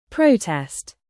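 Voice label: "present", noun, British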